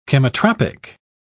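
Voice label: "chemotropic", British English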